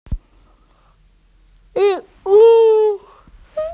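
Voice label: "li3wu4", Mandarin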